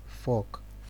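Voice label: "fuck", Nigerian Pidgin, male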